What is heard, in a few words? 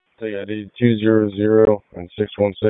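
Police District Dispatch, p25; wake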